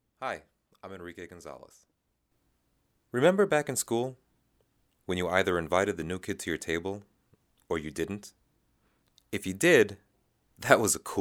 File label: Student Voice Over Demo